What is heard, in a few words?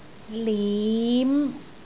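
"lim5", Cantonese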